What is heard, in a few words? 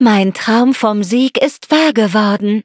victory, de; cookie run